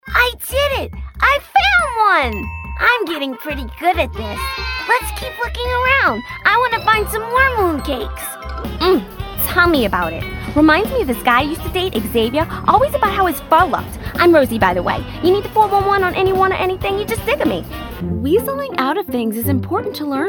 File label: Animation